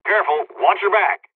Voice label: dialogs serbian; War Thunder